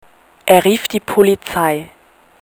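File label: "rufen", German; er ruft die Polizei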